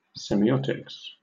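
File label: "semiotics", British English; pronunciations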